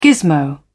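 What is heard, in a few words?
"gismo", English, British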